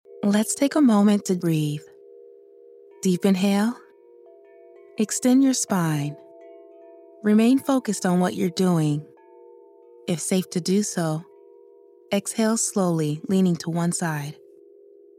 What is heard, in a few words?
Radio English